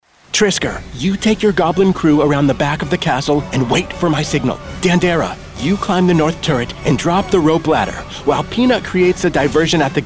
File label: Animation Demo